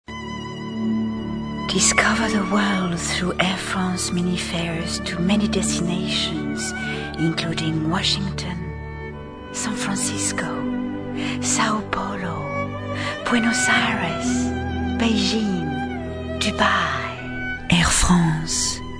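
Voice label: Airy and Tender, Commercials, British; Air France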